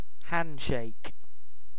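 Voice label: "handshake", British English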